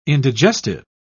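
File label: "indigestive", British English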